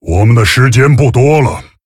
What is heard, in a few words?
Male Tauren Time; zh